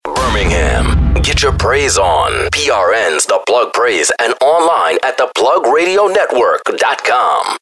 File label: Radio Gospel Station ID; The Plug